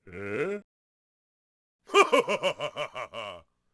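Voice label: Modern Tycoon Act Vox; Civ4 warlords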